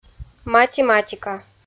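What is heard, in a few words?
"математика", Komi, female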